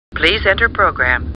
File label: Computer Voice; Star Trek; StarTrekLCAR